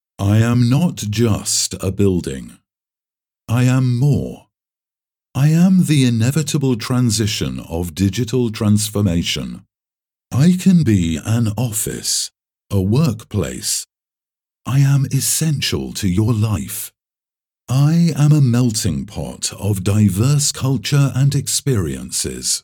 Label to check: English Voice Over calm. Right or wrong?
Right